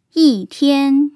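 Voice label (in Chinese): "yī tiān", Mandarin; tone changes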